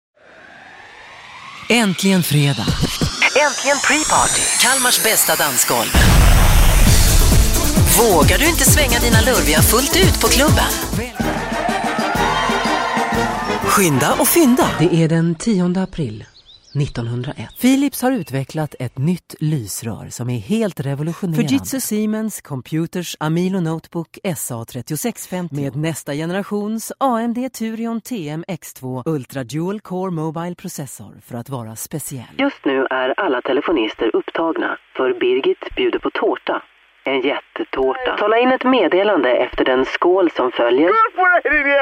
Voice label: Swedish